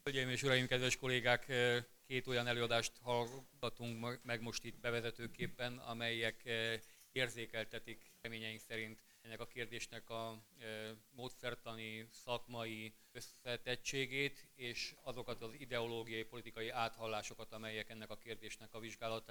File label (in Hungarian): kommentar; 20 szazad kissebsegpolitika alternativai